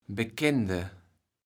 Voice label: "Bekende", kaevels platt

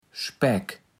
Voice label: "Späck", platt

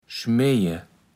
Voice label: "schmeje", Platt

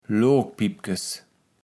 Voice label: "Lookpippkes", kaevels platt

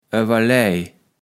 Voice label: "öwerläj", kaevels platt

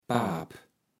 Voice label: "Paap", Platt

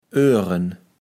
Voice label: "öhren", kaevels platt

